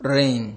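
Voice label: "reign", Nigerian Pidgin, male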